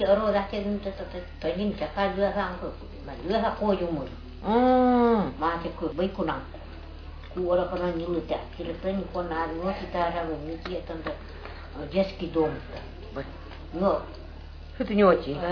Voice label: nganasan